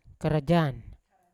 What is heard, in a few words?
"karajan", to work, Yawa